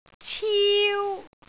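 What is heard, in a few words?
"ciu1", Cantonese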